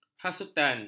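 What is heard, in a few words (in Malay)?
"hasutan", Malay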